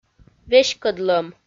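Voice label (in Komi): "веськӧдлӧм", Komi, female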